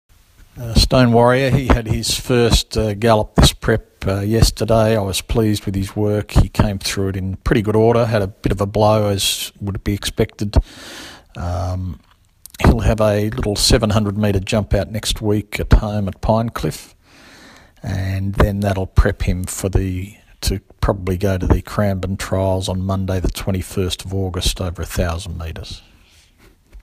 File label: NZ voice note; Stone Warrior